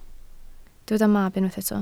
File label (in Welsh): "mab", Welsh